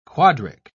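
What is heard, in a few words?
"quadric", English, British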